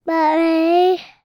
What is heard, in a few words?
human 3 year old english boy says mummy softly